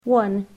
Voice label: "one", english

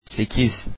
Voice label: eight, Turkish

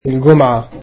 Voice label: "Friday", egyptian

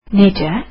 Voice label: how, azeri

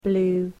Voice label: "blue", english

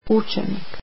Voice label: student, croatian

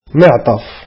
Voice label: coat, arabic

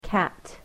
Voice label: "cat", english

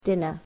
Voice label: "dinner", English

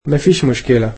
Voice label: "no problem", egyptian

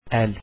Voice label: hand, Turkish

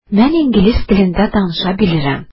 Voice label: I speak English, azeri

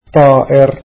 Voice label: "bird", arabic

